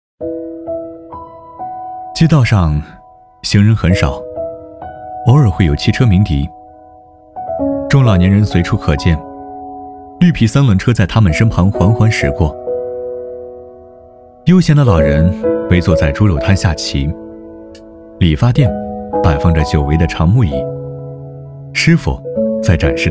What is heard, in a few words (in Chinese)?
走心旁白, 男; 沅陵